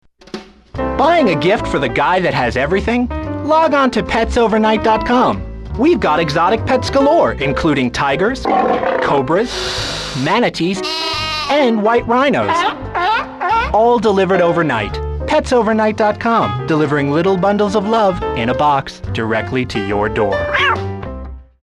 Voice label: commercial pets; GTA3 Radio Stations - Commercials